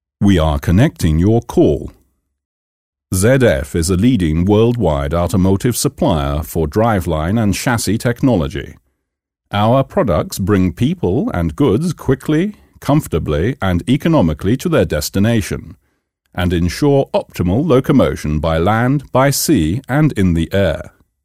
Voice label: standard, english